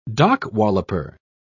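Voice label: "dock-walloper", British English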